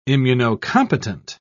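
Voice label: "immunocompetent", British English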